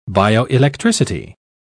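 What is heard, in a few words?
"bioelectricity", English, uk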